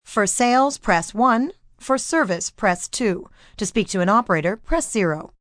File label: Sales Press 1, Voicemail, telephone messages, female